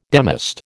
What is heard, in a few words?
"demist", American